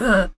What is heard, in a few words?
Surprised; TR3; Playable Tutorial Level